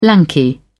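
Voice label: "lanky", British English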